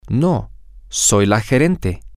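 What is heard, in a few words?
Spanish, male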